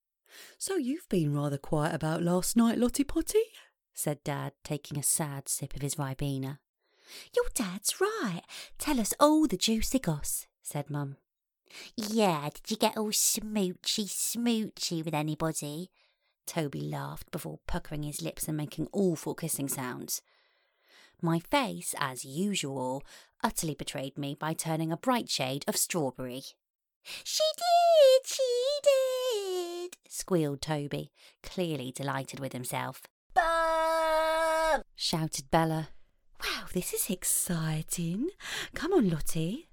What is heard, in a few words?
Audiobook